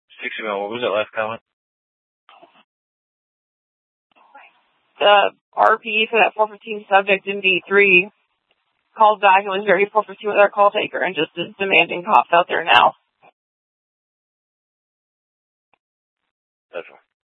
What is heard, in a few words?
Police South Dispatch